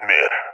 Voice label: loc mid, seal epic; Counter Strike Model Pack; CS:GO